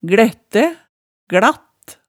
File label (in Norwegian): "glættæ", hemsedalsmaalet